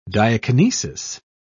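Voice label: "diakinesis", British English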